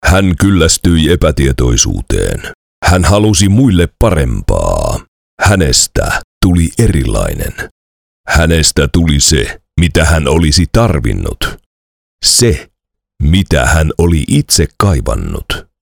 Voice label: finnish movie trailers